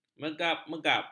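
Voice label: "megap-megap", Malay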